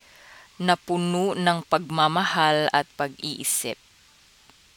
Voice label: tagalog